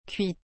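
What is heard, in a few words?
"cuite", French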